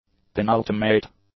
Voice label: "penultimate", English; adjectives